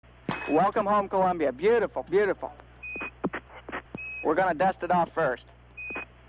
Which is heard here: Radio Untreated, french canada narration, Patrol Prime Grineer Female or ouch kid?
Radio Untreated